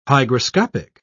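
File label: "hygroscopic", British English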